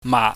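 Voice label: "maak", Cantonese, mid level tone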